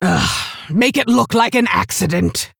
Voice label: Female Orc Bark; Garona Halforcen